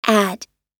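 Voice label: "add", US English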